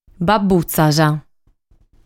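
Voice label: "Babutzas", Sardinian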